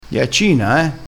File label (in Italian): "ghiaccina", crespinese